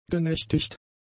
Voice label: "genächtigt", German; adjectives

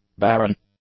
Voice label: "barren", English; adjectives

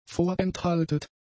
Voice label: "vorenthaltet", German; adjectives

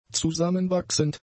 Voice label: "zusammenwachsend", German; adjectives

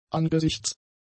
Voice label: "angesichts", German; adjectives

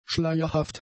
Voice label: "schleierhaft", German; adjectives

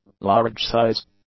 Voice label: "large-size", english; adjectives

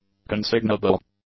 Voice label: "consignable", English; adjectives

